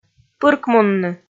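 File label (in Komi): "пыркмунны", Komi, female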